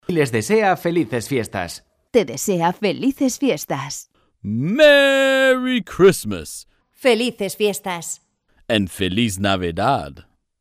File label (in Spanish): voces locutores navidad felices fiestas; Felicitación de las fiestas navideñas, en español y en inglés, con diferentes voces de locutores